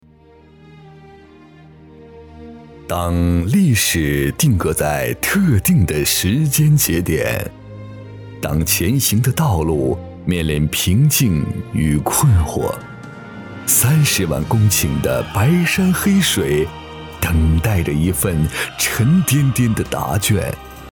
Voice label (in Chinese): 宣传片